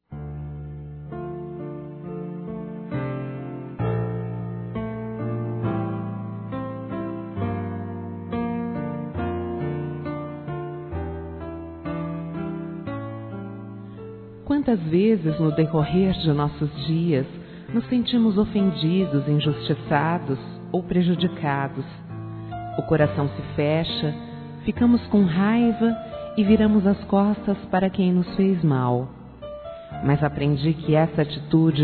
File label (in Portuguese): ACEITO SUAS DESCULPAS F, Telemensagens; fem; Telemensagens 2017